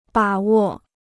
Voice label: "把握", Chinese

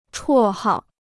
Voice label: "绰号", Chinese

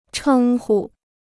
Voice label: "称呼", Chinese; chēng hu; to call; to address as; form of address; appellation

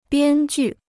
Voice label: "编剧", Chinese

